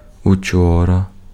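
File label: Ukrainian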